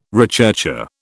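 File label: "recherché", British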